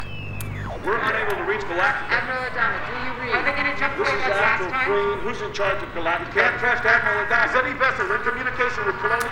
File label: fleet chatter; BSG2000; The Oath